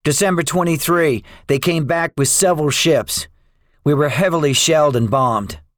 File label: debriefing, english; War Thunder